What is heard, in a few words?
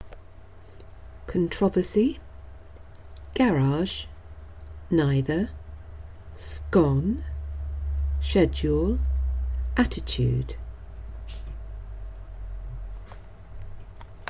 six words Guildford female